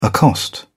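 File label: "accost", British English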